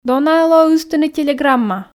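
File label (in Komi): "дона-ӧ лоӧ ыстыны телеграмма", Komi, female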